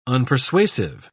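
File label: "unpersuasive", British English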